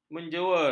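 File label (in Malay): "menjewer", Malay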